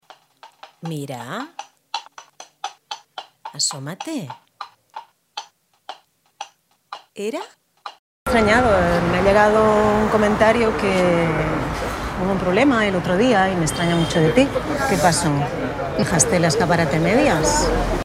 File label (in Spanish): ficción castellano